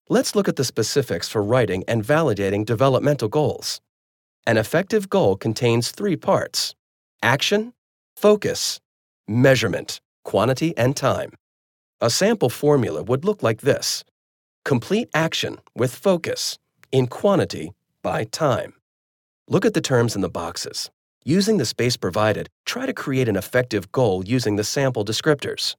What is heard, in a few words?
USA elearning